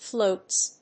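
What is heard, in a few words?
"flutes", English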